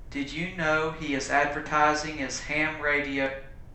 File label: room speech 8kHz